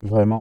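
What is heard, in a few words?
male "vraiment" flattened transformed, French, random pitch profile; random speech generation; CLEESE; PhaseVocoder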